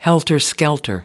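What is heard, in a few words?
"helter skelter", American English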